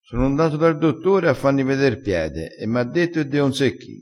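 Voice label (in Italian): "insecchi", vocabolario dialettale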